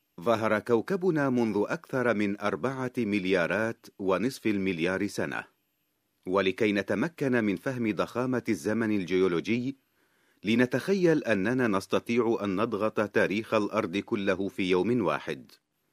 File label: locutor arabe arabic voiceover; Arabic male voice over